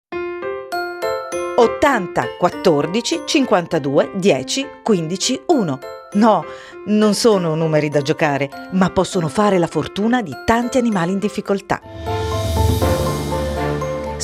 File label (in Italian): spot